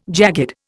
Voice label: "jagged", Indian